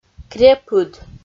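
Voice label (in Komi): "крепыд", Komi-Zyrian, female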